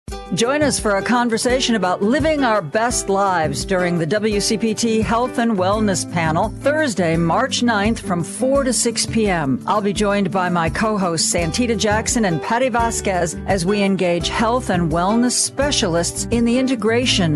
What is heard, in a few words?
Promo; HW Panel 2023